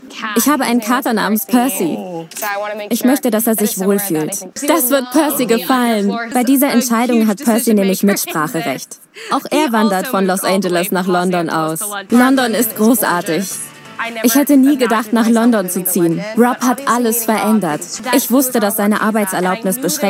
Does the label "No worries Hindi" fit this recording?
No